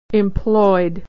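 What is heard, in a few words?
"employed", American English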